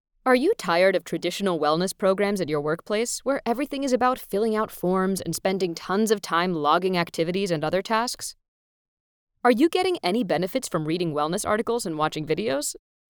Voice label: 外籍女, 英语配音

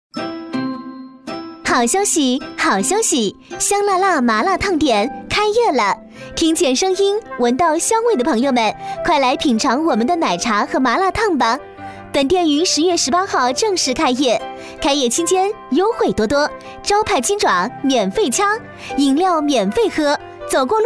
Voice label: 女 麻辣烫店（青春甜美）

